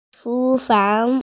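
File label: "chu2fang2", Mandarin Chinese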